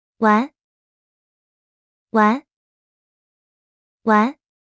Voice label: "玩", Mandarin; Traditional Chinese

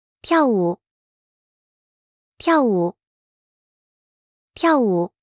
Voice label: "跳舞", Mandarin; Traditional Chinese

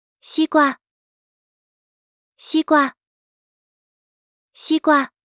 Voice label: "西瓜", Mandarin; Traditional Chinese

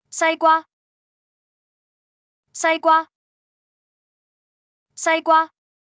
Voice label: 西瓜, Cantonese; Traditional Chinese

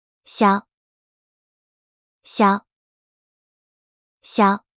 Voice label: "小", mandarin; Traditional Chinese

